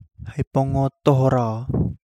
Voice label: Kapingamarangi; whale in general

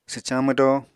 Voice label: "swcañ mwdo", Apatani

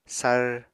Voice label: "sár", apatani

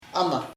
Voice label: "this (thing) esta", chamacoco

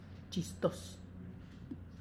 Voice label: tlacochahuaya, Zapotec; funny

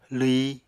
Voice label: "lwyi", Apatani

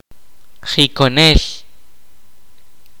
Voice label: "fertility massager", kaqchikel

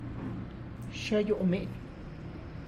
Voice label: How are you young man, Tlacochahuaya Zapotec; (Spanish) Cómo estas joven?; xa yu' mi'ny?

